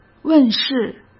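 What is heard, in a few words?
"问世", Mandarin Chinese; hsk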